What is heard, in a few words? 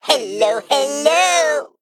Male Mech Emote MIRROR GREETINGS